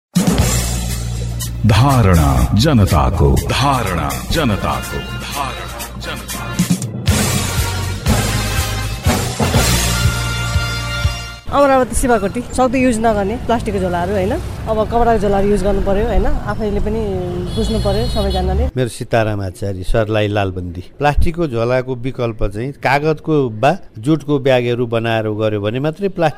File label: Vox Pop